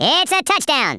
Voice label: first blood, US Sports